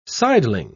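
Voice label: "sideling", British English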